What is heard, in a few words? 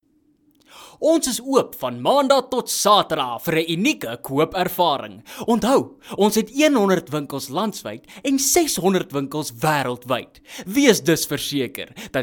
Afrikaans Hard Sell, voice